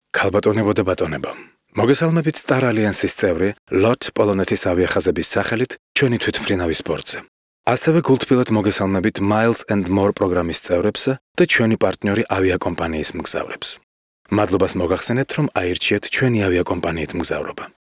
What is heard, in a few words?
Georgian